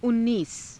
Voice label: "unniisa", hindi